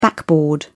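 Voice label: "backboard", British English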